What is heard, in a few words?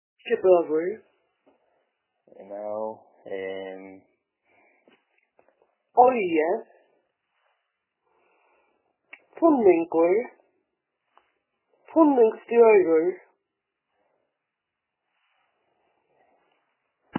Faroese places